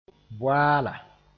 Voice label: "boaala", moore; cooked flower balls